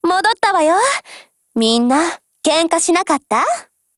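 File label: OPERATION OVER, Japanese; GF Alarm; M1891Mod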